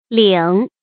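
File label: "lǐng", Chinese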